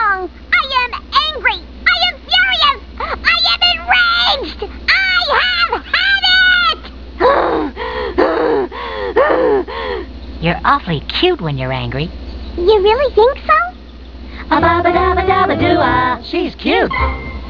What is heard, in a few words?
angry